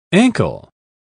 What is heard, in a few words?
"ankle", English